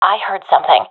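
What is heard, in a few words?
heard sound, professional female; vo; Counter Strike Model Pack; CS:GO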